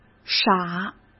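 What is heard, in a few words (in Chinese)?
"傻", Mandarin Chinese; hsk; chinese-flashcards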